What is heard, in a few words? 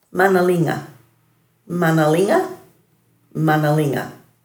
"manalinga", Dhurga